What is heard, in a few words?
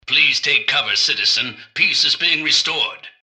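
attack, robot; npc; mrgutsy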